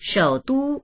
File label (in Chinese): "shou3du1", voices